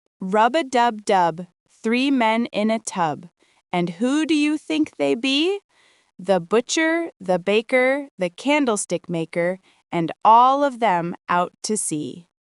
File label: fem us